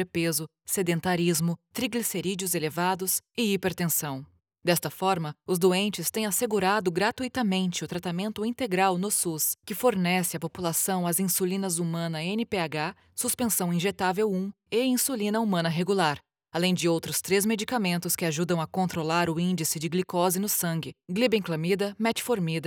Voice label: Medical Health Training Course for Doctors Diabetes Knowledgeable Trustworthy Technical